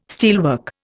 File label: "steelwork", Indian